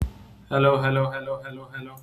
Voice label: voice message